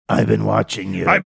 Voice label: saying i've been watching you gruff